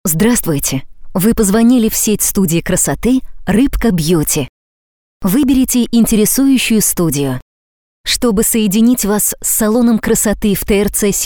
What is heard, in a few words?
IVR